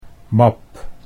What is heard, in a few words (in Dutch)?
"map", Dutch